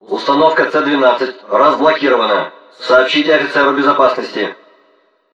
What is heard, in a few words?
system message; STALZONE; customnpcs; stalker